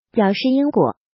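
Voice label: "biǎo shì yīn guǒ" Chinese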